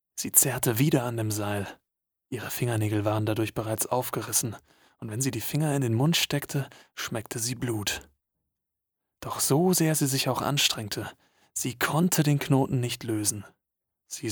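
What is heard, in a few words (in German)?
Hörbuch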